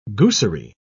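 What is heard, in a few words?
"goosery", British English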